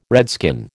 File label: "redskin", American